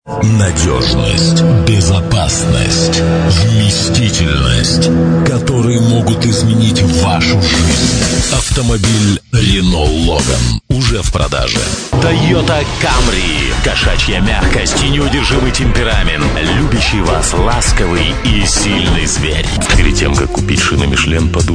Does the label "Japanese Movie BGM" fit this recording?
No